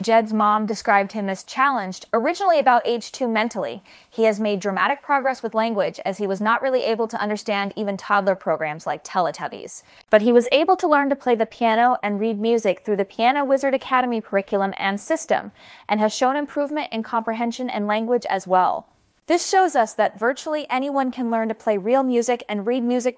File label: narration